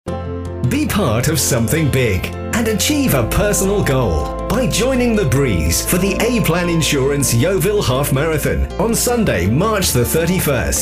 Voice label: commercial soft sell

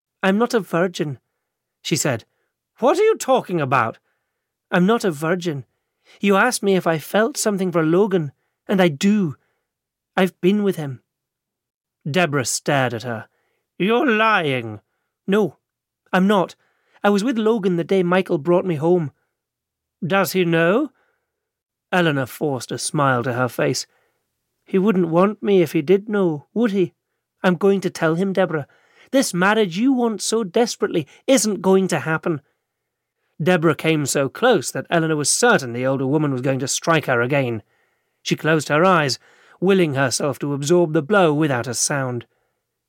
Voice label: audiobook fiction

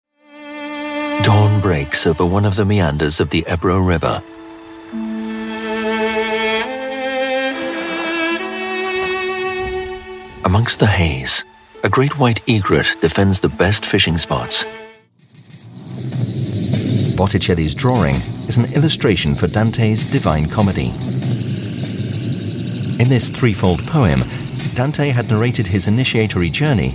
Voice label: documentary

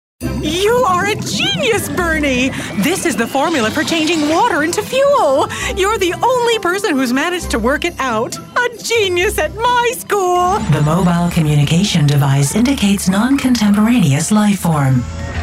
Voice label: animation

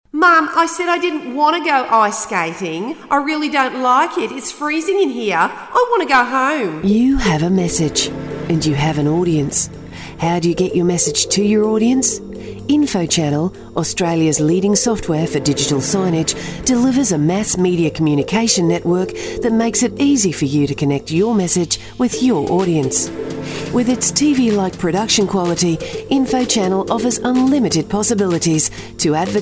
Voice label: australian authentic

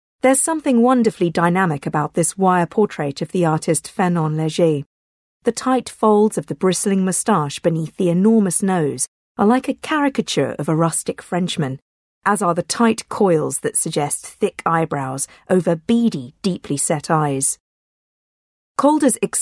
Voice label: audio guide; the tate

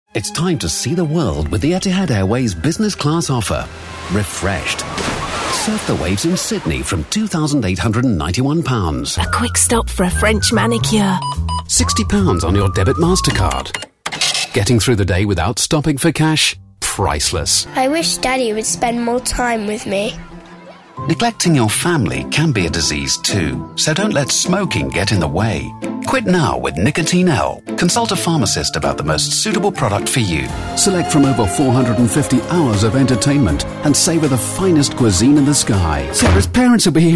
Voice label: commercials

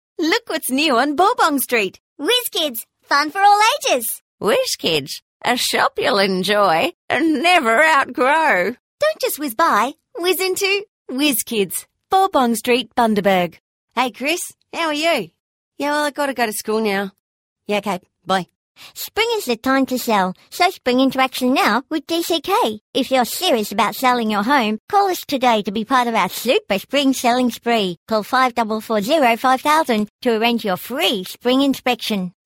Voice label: character

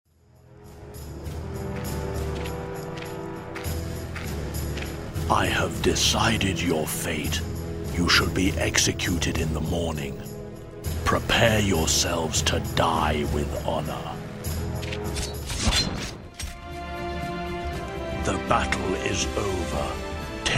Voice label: old man

